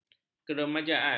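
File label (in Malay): "keremajaan", Malay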